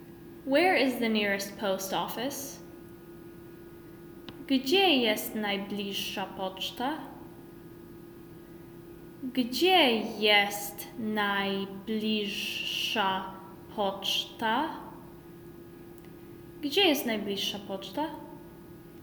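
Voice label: Where is the nearest post office, Polish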